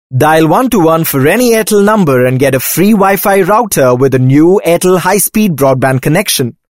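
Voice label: english male voice over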